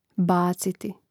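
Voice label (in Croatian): "baciti", Croatian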